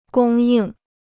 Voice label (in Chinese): "供应", Chinese